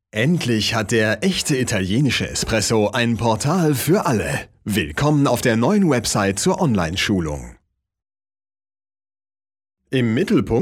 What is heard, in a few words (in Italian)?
tedesco